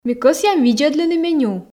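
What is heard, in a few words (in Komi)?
ми кӧсъям видзӧдлыны меню, Komi, female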